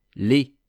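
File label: "les", French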